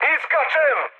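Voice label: Serbian bailout mood high, speech; War Thunder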